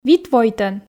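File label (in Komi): "вит войтӧн", Komi, female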